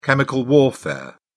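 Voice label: "chemical warfare", British English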